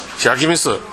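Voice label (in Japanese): "yagimisu", 南山形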